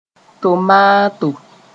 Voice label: "tomaato", Mooré